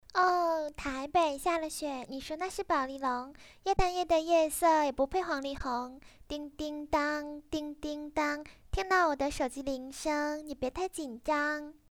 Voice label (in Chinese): 夹子音